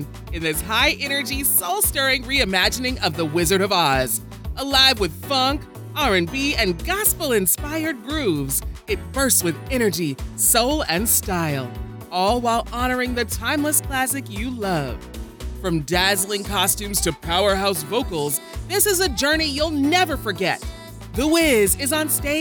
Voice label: Urban Broadway Ad, African-American, Female Voice Over; The Wiz